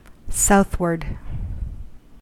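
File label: "southward", US English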